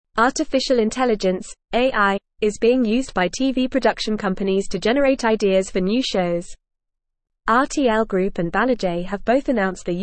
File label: English Advanced FAST Reading; AIs Role in TV Production Generating Ideas and Assisting Crea